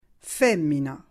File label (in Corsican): femina